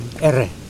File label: connector of main part of canoe to outrigger, mokilese